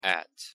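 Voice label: "at", English pronunciation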